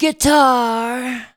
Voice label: GUITAR, SHOUTS; Best Service Hyper Dance